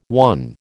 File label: "won", American